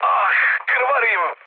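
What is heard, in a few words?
Serbian damaged pilot mood med, speech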